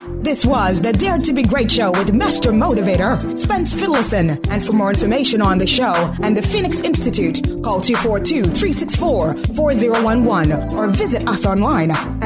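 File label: English Bahamas, branding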